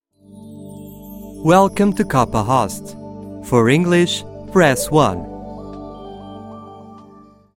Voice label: en

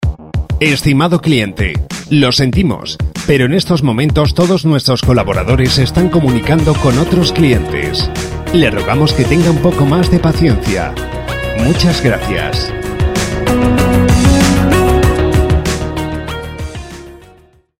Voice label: esp

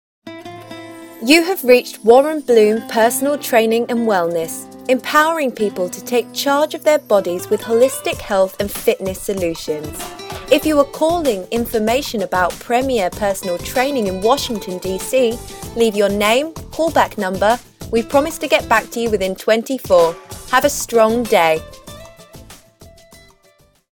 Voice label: uk

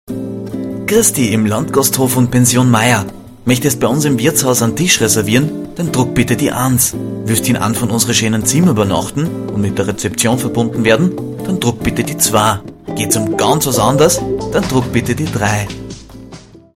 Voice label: oesterreich

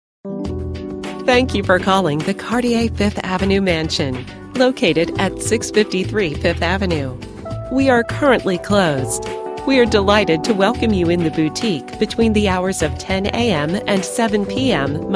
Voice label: voicemail greeting; english; after hours greeting

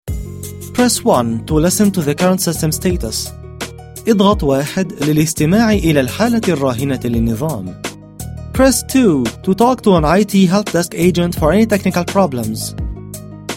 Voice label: en arab

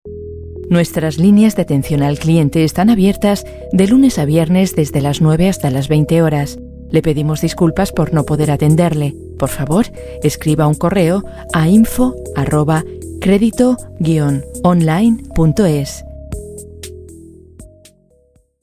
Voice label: esp

